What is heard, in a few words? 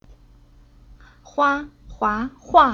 "Hua", Chinese